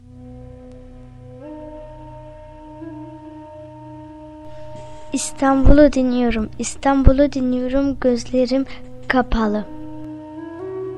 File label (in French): poeme turc; orhan veli